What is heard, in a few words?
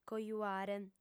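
"cojuare", female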